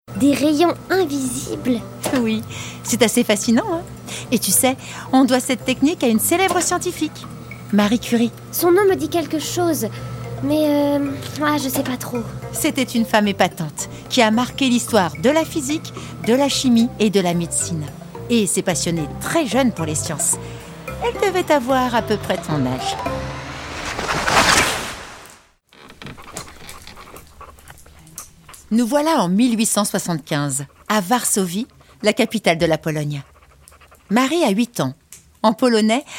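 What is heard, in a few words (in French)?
Podcast, comédienne voix off; RomanDoc Marie Curie; Bayard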